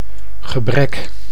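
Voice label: "gebrek", Dutch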